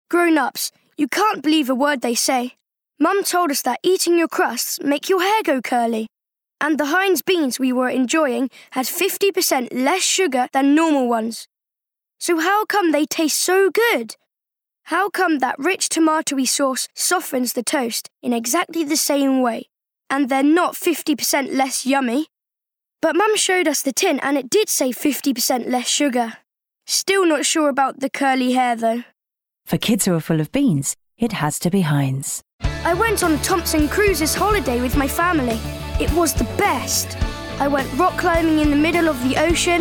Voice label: Commercial, distinctive husky quality to his youthful voice; voice over demo